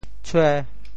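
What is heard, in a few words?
"tshue5", Teochew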